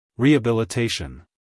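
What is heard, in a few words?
"rehabilitation", American English male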